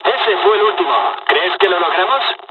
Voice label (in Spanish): dialog, dialogs spanish; us midway; War Thunder